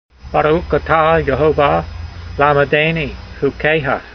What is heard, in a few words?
voice, hebrew language; Psalm 119:12; barook ata yehovah lamedaynee hukeyha